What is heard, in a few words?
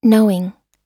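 "knowing", American English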